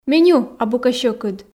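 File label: Komi, female; меню абу кӧ сьӧкыд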